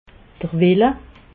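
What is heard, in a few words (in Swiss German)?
"welle", Alsatian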